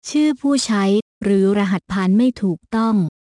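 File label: Username Or Password Incorrect, Thai; OpenCore; AXEFIAudio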